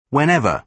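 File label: "whenever", British English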